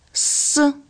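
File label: letter "S", Russian; bukvar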